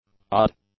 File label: "odd", english; adjectives